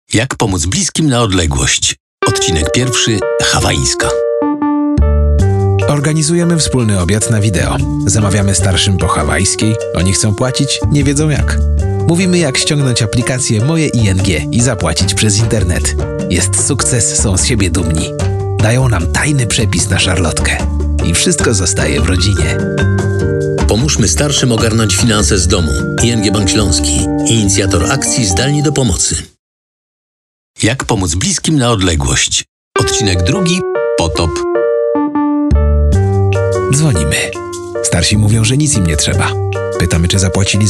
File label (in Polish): radio; EFFIE2020; ING; zdalnidopomocy